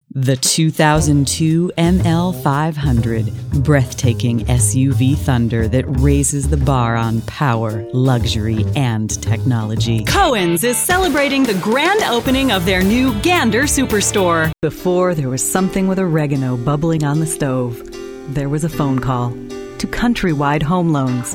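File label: commercial, female voice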